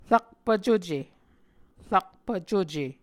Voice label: "rakpvcuce", muscogee

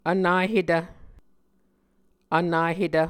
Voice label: "vnahetv", Muscogee

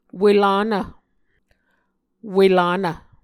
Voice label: "welanv", Muscogee

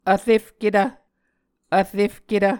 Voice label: "vrefketv", Muscogee

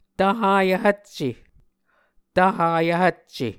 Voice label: "Tvhayv Hvcce", Muscogee; Tvhvyv Hvcce; Mvskoke Words with Repeated Consonants; Onvkv Ohhvyayices; A Dictionary of Creek/Muskogee; SIL International

